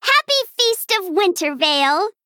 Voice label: Female Gnome HAPPY HOLIDAYS